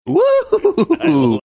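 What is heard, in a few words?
saying whoooo hoo hoo hoo hoo hoo funny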